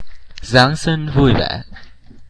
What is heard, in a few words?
Vietnamese, christmas